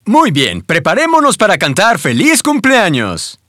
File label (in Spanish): Spanish - dialogue, reveal; Birthday Party Bash; Wii